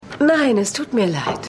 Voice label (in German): Frau, Stimmproben